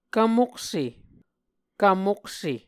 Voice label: "kvmokse", Muscogee